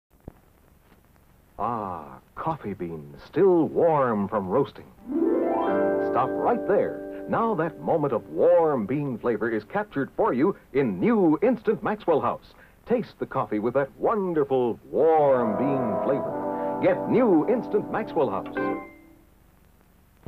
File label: Instant Coffee Vintage Commercial; General Foods Instant Maxwell House Coffee Warm Bean Flavor; 1960s